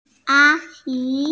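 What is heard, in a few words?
"a1 yi2", Mandarin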